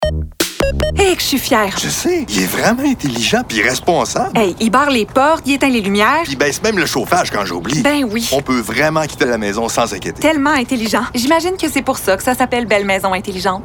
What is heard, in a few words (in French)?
Bell (Personnage) 18-30 ans